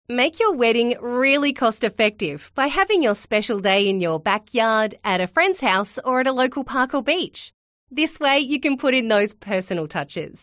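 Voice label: CORPORATE, voiceovers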